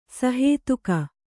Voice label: "ಸಹೇತುಕ", Kannada

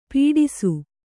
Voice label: "ಪೀಡಿಸು", Kannada

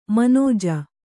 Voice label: "ಮನಜೆ", Kannada

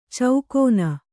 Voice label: "ಚೌಕೋನ", kannada

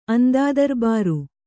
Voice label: "ಅಂದಾದರ್ಬಾರು", kannada

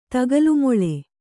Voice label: "ತಗಲುಮೊಳೆ", Kannada; tagalu moḷe; noun

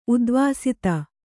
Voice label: "ಉಧ್ವಸ್ತ", Kannada

